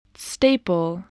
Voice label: "staple", voice

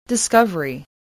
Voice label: "discovery", english spelling

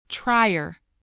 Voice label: "trier", voice

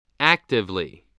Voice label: "actively", English voice

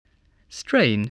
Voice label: "strain", spelling

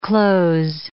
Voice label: "close", English

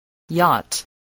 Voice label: "yacht", voice, english

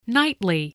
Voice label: "nightly", voice

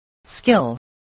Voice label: "skill", voice, spelling

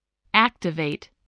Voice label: "activate", English voice

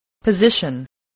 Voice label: "position", English

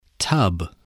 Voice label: "tub", voice

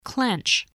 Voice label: "clench", voice

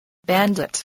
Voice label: "bandit", English

